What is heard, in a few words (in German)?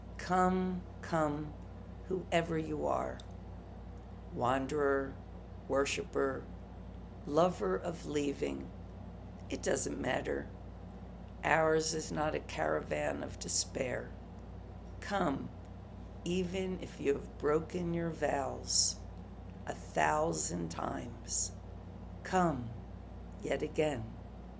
rezitiert Gedichtpassage; Patti Smith; Rumi